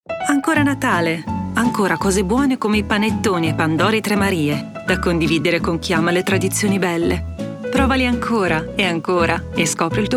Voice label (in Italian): Italian, Christmas Commercials; Italy; Christmas Commercials 2023; Galbusera